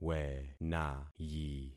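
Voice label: "wenayi", concatenated syllables; Affixing experiment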